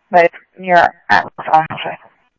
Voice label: Police, scanner audio trunk; H-Scottsdale